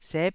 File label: "sep9", taiwanese